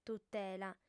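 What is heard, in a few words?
"tutela", Sardinian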